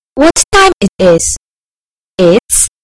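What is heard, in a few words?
"what time", British English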